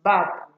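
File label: "barb", Nigerian Pidgin, female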